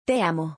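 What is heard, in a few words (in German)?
Spanisch, "Ich liebe dich"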